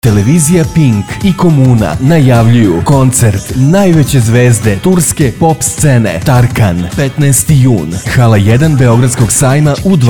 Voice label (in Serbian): radio reklama